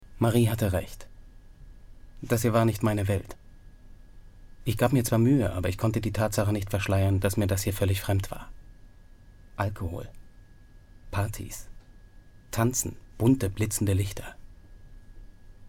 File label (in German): VOICE OVER; LOFT VOICES